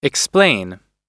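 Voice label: "explain", English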